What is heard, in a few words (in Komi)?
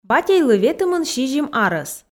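батьӧйлы ветымын сизим арӧс, Komi, female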